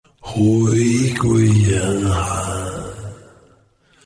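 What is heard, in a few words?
death, Chinese; dota2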